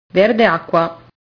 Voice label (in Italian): "verde acqua", italian